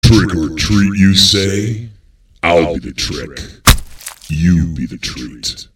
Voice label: Scary Greetings Trick Or Treat